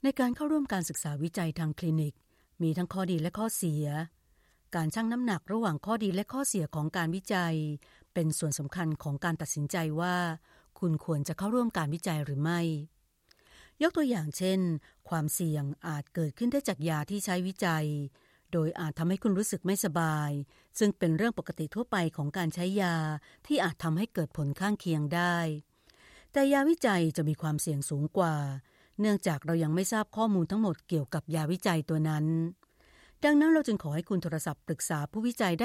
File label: Thai F Documentary; voice-over